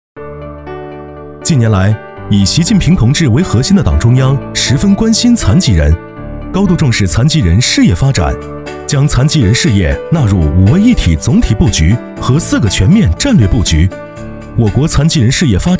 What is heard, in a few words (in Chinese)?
宣传